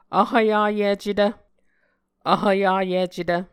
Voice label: "vhvyayicetv", Muscogee

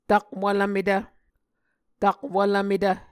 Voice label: "takwvlvmetv", Muscogee

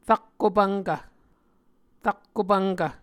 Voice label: "rakko pvnkv", Muscogee

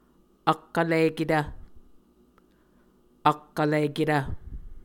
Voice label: "ak vliketv", Muscogee; Mvskoke Words with Voicing Consonants; Martin, Jack B., and Margaret McKane Mauldin. 2023. A Dictionary of Creek/Muskogee; SIL International

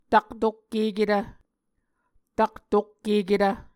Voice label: "taktokkeketv", Muscogee; Mvskoke Words with Repeated Consonants; Onvkv Ohhvyayices; A Dictionary of Creek/Muskogee; SIL International

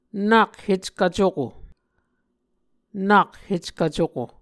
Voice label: "nak heckv cuko", Muscogee

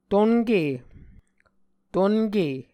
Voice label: "tonke", muscogee

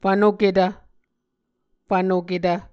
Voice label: "fvnoketv", muscogee

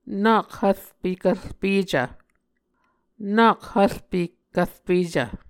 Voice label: "nak hvrpe kvrpecv", muscogee

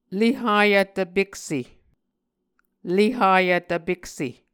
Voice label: "le hayv tvpekse", Muscogee

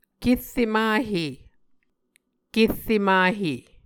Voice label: "kerre mahe", Muscogee

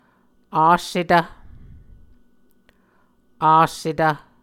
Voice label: "assetv", muscogee

